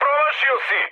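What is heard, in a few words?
Serbian bomb failure mood high, speech; War Thunder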